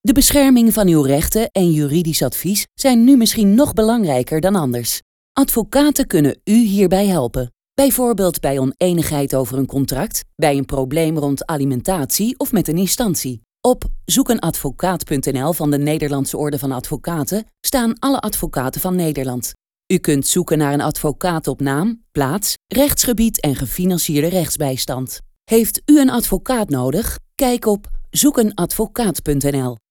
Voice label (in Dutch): RADIOCOMMERCIAL ZOEK EEN ADVOCAAT